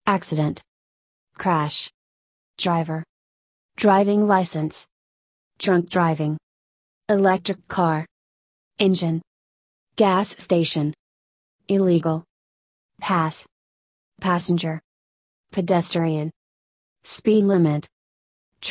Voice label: USA

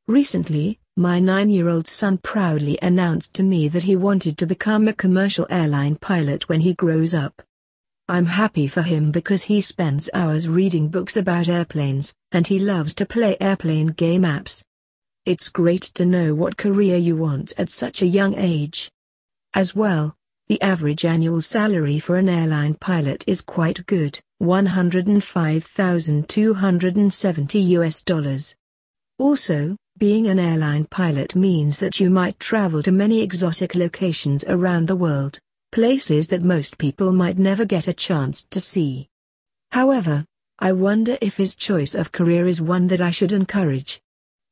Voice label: uk stressful jobs

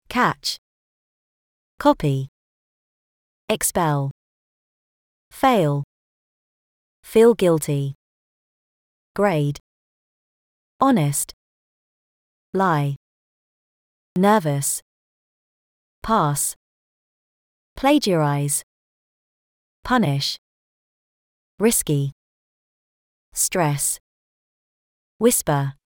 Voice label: uk cheating

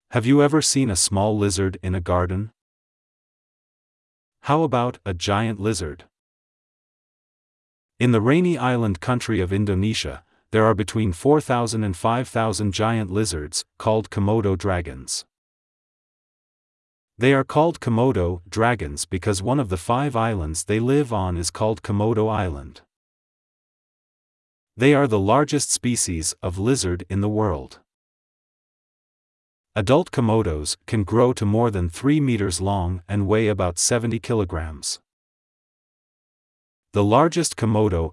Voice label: usa

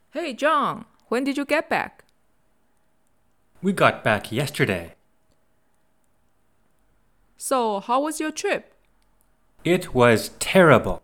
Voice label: basic english dialogs travel

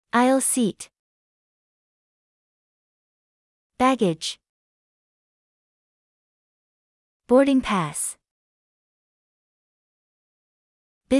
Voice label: usa air travel audio

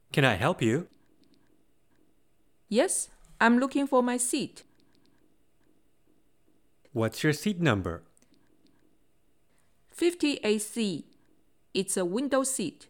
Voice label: basic english dialogs air travel

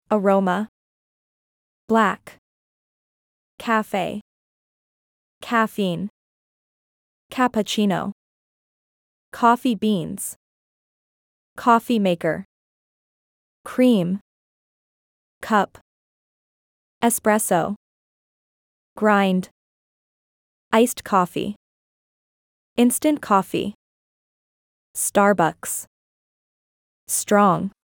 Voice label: usa; coffee

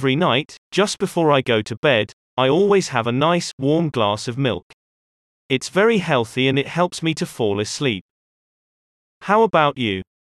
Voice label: uk food drinks love coffee

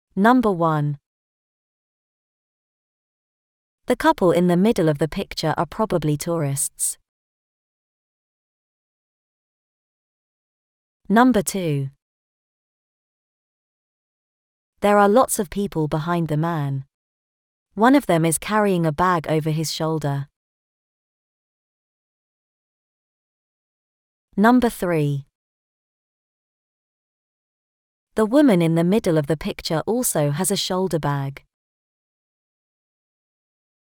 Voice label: uk describing travel brochure

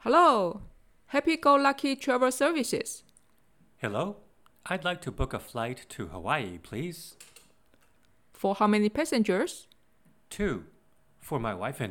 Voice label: basic english dialogs travel

